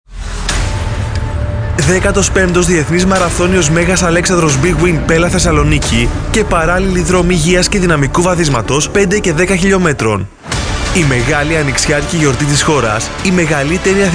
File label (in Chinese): 希腊语男大气; 希腊语男1-大气广告宣传配音